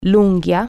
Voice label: "toenail", Italian

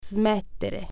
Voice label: "stop", Italian

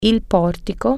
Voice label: "porch", Italian

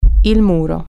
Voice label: "wall", Italian

